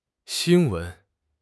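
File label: "xin1 wen2", Mandarin Chinese; HSK3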